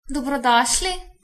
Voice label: welcome, Slovenian; Dobrodošli